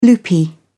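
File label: "loopy", British English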